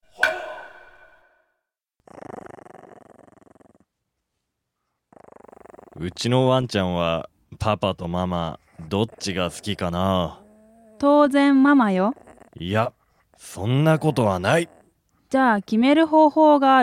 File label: dialog; JapanesePod101; Beginner Season 1